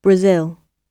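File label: "b", British English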